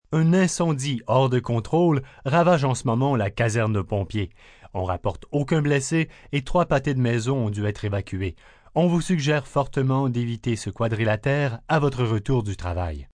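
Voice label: News Fire, Broadcast, news, male news item in French on out of control fire.; human voice speaking; VoiceBox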